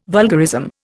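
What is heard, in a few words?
"vulgarism", Indian English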